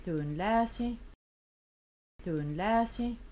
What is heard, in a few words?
Washing Dishes you might bring plural objects, Kaska